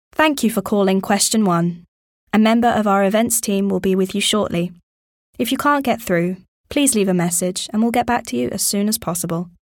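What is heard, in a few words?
British English, IVR; GoLocalise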